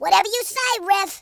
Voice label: orders, American Wrestler

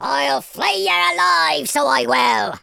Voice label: "leave me alone", Pirate

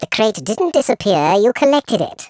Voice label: collect, speech, Patronising

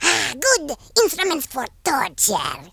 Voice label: drop, Horror

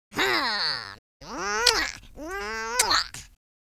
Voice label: happy, French Lover; speech

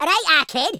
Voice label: "hello", Madchester

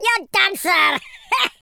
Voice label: brilliant, Scotsman; speech

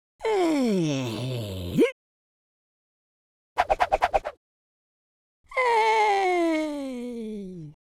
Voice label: idle, London Cabbie; speech

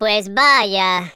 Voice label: boring, Spanish; speech

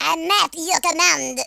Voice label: yes sir, speech, French

